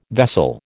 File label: "vessel", American